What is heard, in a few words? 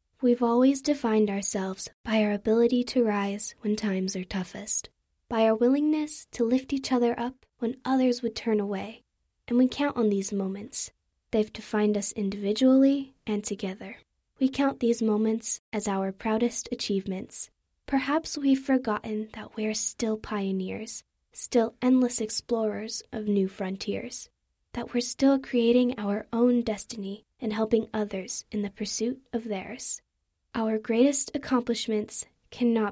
kids commercial